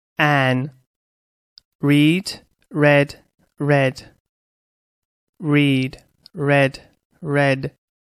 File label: "read", English